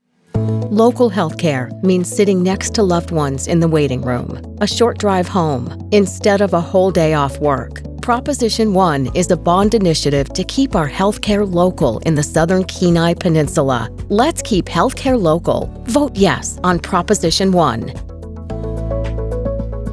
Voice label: Local Issue Encouraging